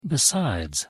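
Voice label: "besides", English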